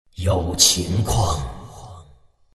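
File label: attack, chinese; dota2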